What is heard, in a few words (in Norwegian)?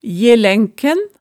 "jelænken", hemsedalsmaalet